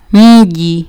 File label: "mji", Kiswahili